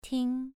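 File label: "ting" Mandarin Chinese, second tone, pronunciation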